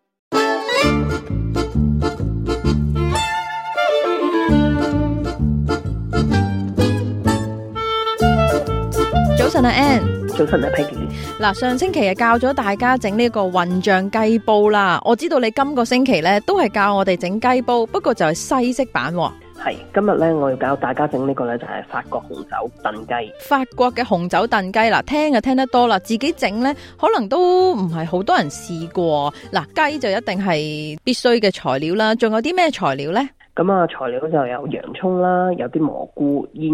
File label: cantonese; 【法式家常菜】紅酒燉雞 Coq au Vin 暖入心法國味; 好煮意; SBS Chinese